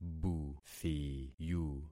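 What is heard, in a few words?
"buthiyu", concatenated syllables; Affixing experiment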